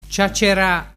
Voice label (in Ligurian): "ciaccera", dialettu